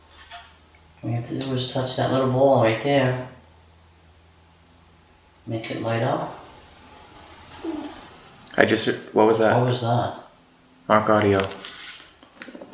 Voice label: House - Young girls voice saying yes

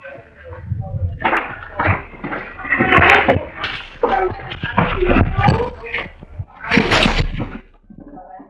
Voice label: Childs voice on the stairs; Harrisville

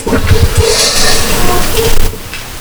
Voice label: Basement - Female Voice - bring me my gift; VOICE ISOLATED

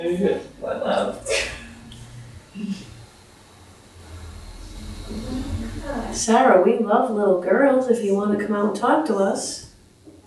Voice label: Female response

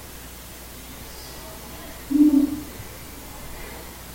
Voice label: House Womans voice in empty house; WOMAN'S VOICE RECORDED IN EMPTY HOUSE; SITTING ROOM; DISEMBODIED VOICE

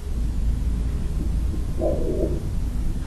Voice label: Dining Room gruff male

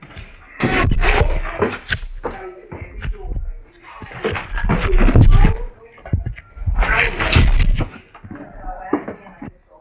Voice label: Childs voice with all present; Harrisville; CHILD'S VOICE ON STAIRS; VOICE ISOLATED

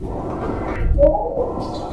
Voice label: Disembodied Female Voice

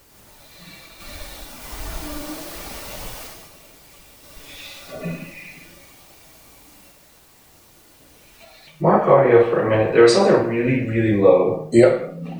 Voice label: Female and Male

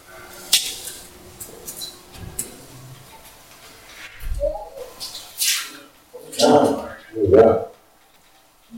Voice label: Disembodied Female Voice

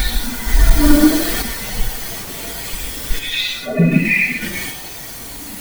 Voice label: Female and Male; VOICES ISOLATED; “MOMMY?……..I MISS YOU”

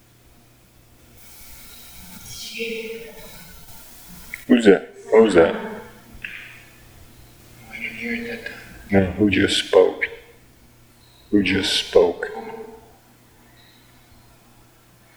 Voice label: Male disembodied voice in Hall; MEETING AND PERFORMANCE HALL

